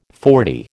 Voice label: "forty", American